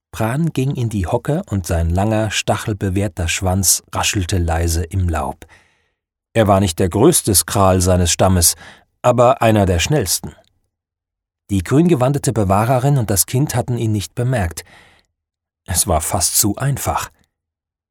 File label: Audiobook Fantasy; Hörbuch